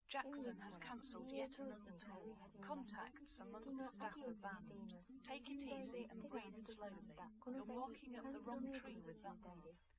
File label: female liverec 130ms 5cm sim